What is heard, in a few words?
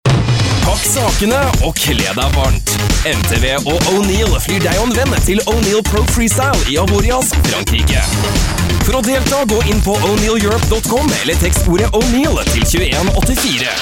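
Norwegian, General; GoLocalise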